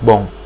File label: good, portuguese